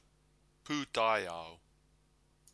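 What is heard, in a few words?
"putaiao", Maori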